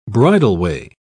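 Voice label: "bridleway", English, British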